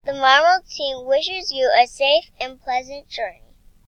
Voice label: American English; marble